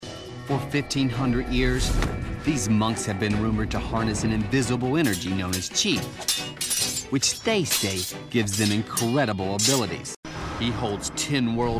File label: narration